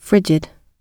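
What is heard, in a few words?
"frigid", British English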